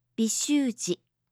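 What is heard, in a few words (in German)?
"Bishuji", japanische Mädchennamen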